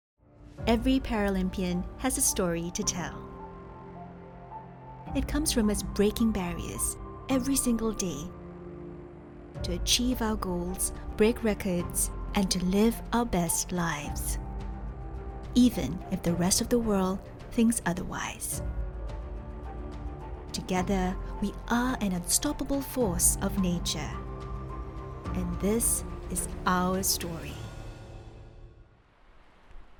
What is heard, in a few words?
Online Video VO, Feature Video Series (Singaporean) - Sincere, Thoughtful, Reassuring, female; Television Spots